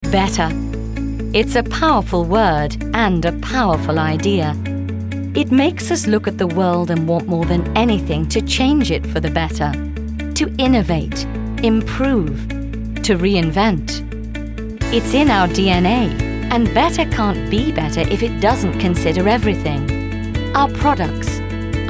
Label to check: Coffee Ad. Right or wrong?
Wrong